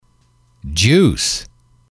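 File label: "juice", male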